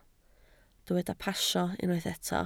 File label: "pasio", Welsh